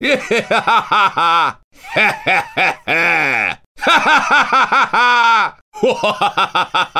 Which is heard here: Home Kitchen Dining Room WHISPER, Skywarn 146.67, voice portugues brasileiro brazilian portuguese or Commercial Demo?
voice portugues brasileiro brazilian portuguese